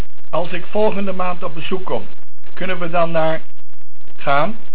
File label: Bezoek, Dutch